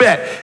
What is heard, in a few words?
vocal shot